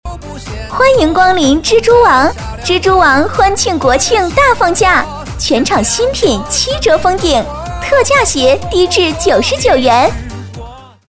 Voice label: 【广告】; 蜘蛛王鞋

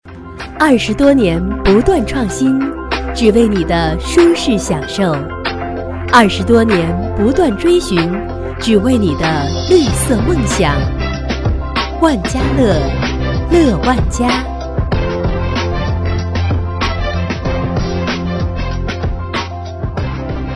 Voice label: 广告; 万家乐

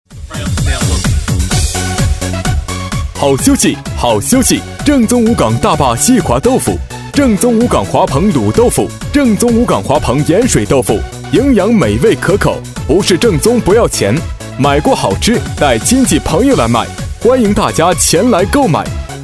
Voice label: 广告 正宗豆腐

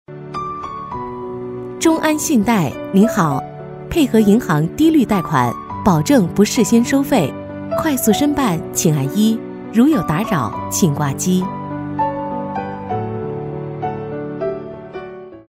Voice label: 【彩铃】; 中安信贷

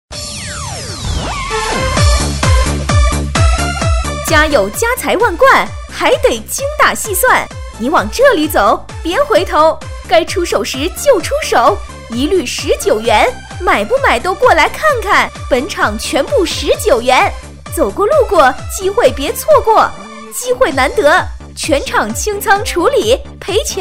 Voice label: 促销

